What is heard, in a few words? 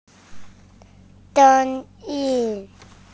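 "sound", Mandarin Chinese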